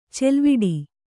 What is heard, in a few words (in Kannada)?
"ಚಲವಿಡಿ", Kannada